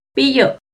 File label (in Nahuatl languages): "piyo", Náhuatl; pollo; INALI; UTexas; NAHUATLAHTOLLI